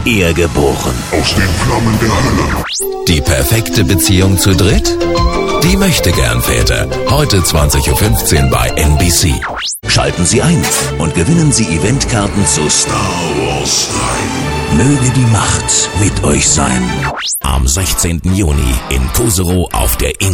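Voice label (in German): stationvoice, deutsch männer; sprecher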